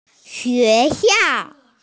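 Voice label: "xue xiao" (school), Mandarin Chinese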